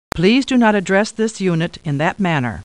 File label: Computer Voice; Star Trek; StarTrekLCAR